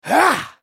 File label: spellcast effort, en US; Swain